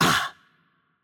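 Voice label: Hungarian hurt light voice; bloom minigame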